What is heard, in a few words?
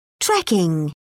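"trekking", British English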